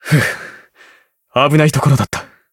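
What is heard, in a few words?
Voice win barely, Japanese; joker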